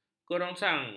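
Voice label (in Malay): "kerongsang", Malay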